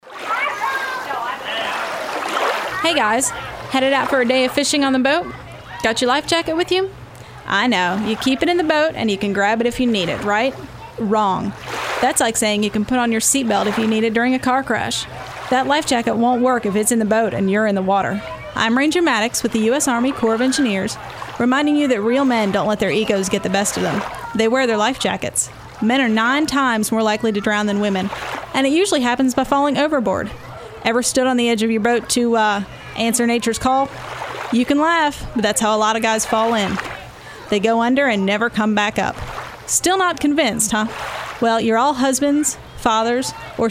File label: Radio PSA; watersafety